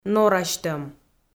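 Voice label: "норасьтӧм", Komi, female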